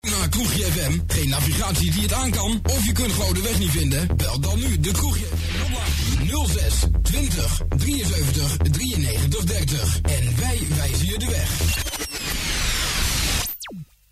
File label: Jingle; Kroegje Fm; Audio Fragmenten; Arkan Radio 2